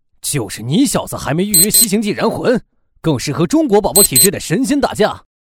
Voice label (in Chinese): 男 角色模仿; 素人自然